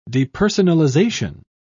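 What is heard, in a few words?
"depersonalization", British English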